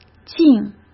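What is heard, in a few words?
"净", Mandarin Chinese; hsk; chinese-flashcards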